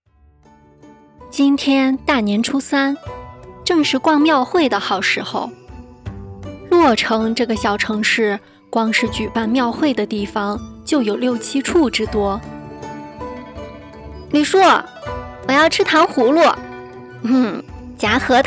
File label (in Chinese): 小说